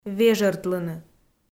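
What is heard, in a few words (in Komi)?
"вежӧртлыны", Komi, female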